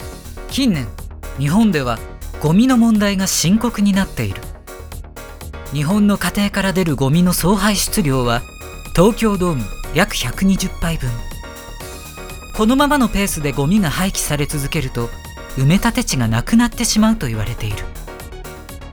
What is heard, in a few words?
narration